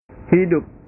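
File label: "hidup", Indonesian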